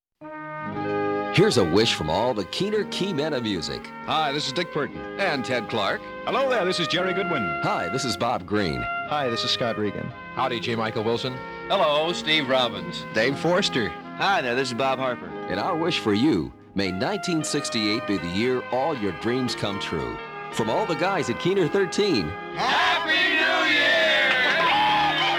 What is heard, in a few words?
Christmas Promo; WKNR